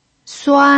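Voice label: "suan", Mandarin Chinese pinyin, first tone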